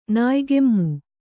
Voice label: "ನಾಯಿಕೆಮ್ಮು", Kannada